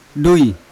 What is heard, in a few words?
"dui", Yawa; money; unat